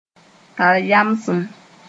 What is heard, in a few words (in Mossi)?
"tar yamsem", moore